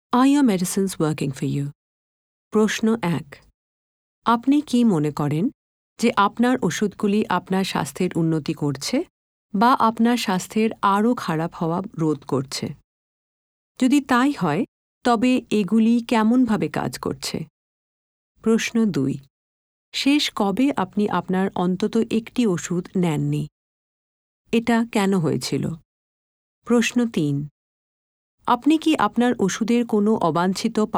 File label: GP Checklist Patient Bengali